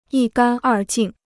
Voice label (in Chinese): "一干二净", Chinese